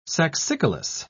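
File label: "saxicolous", English, British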